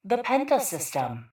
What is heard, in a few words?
PA System; Penta